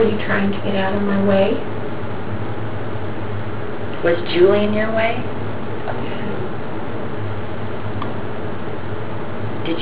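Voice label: bathroom faint yes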